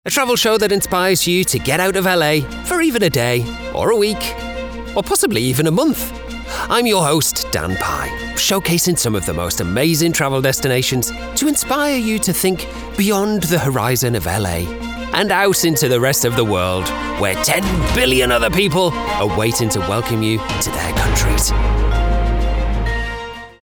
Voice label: documentary